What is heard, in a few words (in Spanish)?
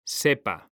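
"sepa", Spanish; mekanta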